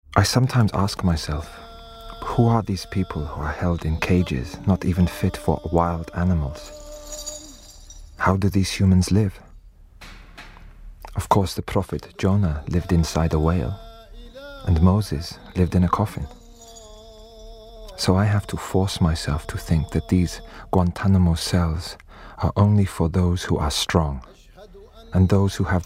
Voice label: arabic